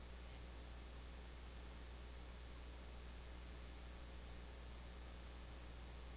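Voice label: "pick", spoken word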